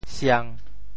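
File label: "siang1", Teochew; 潮州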